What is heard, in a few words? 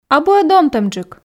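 "абу-ӧ донтӧмджык", Komi, female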